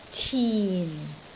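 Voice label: "cin4", Cantonese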